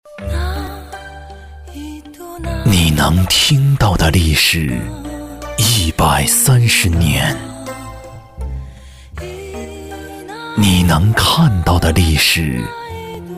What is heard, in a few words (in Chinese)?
男 广告 沉稳 浑厚 磁性; 国窖1573